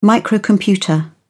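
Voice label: "microcomputer", British English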